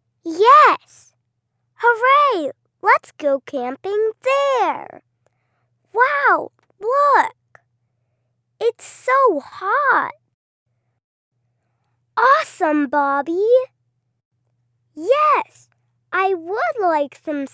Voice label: 外籍女童声 点餐

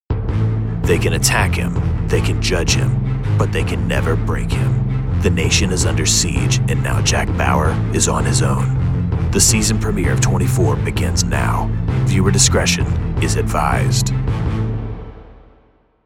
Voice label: 外籍英男-低沉力度

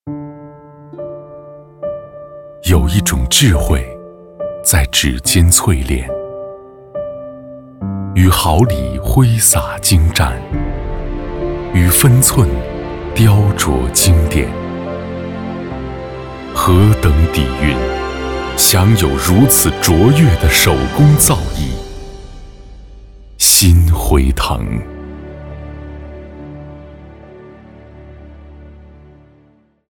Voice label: 男, 新辉腾

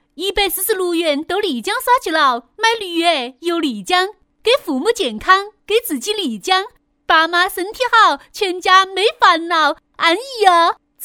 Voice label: 女, 重庆方言; 绿A丽江旅游广告

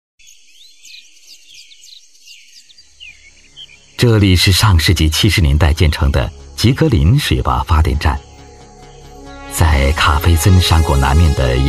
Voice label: 男 人文地理类专题 自然亲切, 浑厚低沉; 国语男声配音

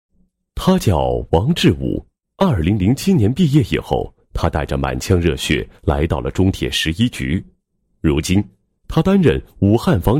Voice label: 男 人物, 配音案例; 武汉房地产开发有限公司副经理兼财务部部长

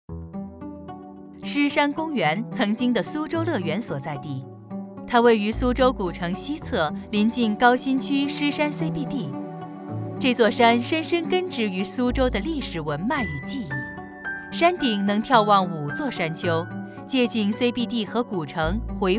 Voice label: 女, 多媒体课件, 轻松风格, 公园; 湿山公园

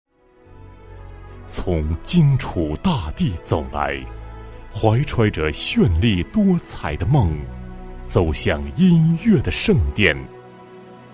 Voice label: 男 专题 人物介绍, 国语男声配音; 磁性专题

